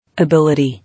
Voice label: "Ability", English